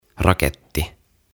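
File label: "Raketti" Finnish; Rocket; OTAVA KONSERNI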